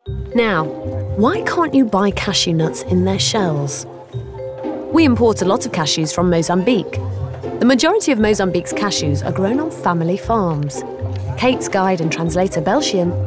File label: Documentary